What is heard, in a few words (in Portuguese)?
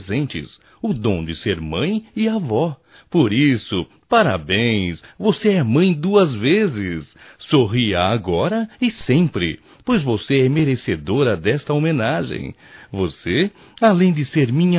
Dia das Mães Avó Masculino; Telemensagens 2017